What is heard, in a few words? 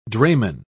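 "drayman", British English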